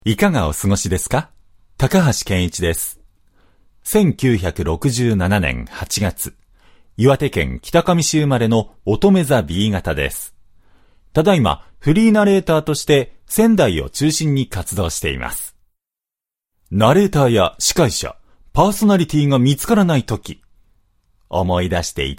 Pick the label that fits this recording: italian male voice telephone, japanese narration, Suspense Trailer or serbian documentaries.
japanese narration